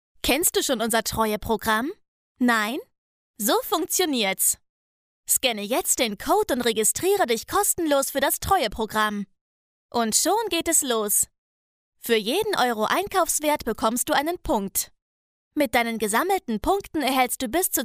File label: de female; lektoring